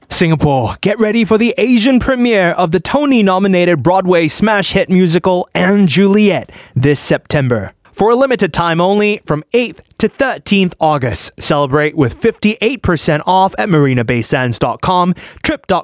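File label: Asian English radio spot clean VO; And Juliet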